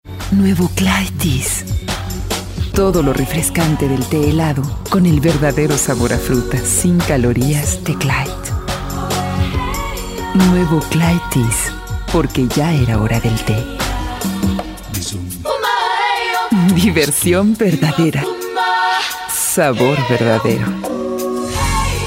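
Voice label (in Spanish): latam mexican female commercial; voice demos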